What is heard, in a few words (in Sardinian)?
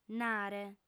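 "nare", female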